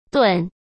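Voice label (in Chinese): "炖", Mandarin Chinese; hsk; chinese-flashcards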